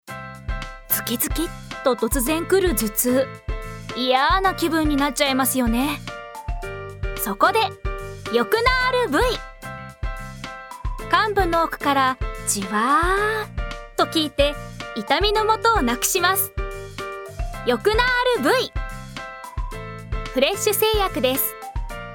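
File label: narration; kusuri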